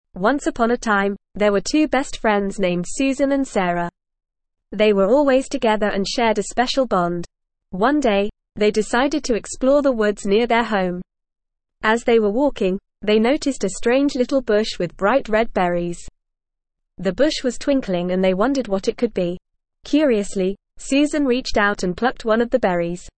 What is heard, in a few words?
ESL Short Stories for Kids NORMAL reading The Magic of Friendship